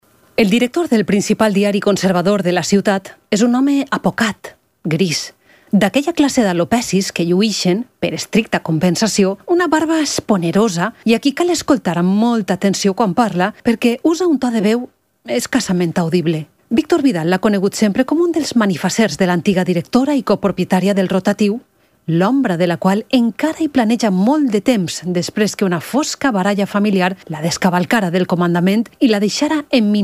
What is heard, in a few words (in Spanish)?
VALENCIANO ADULTO